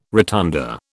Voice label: "rotunda", British